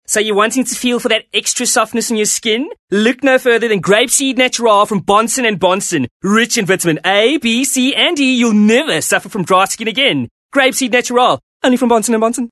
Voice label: English Character Camp